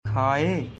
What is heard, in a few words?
"Khaye", Baghelkhandi; Eat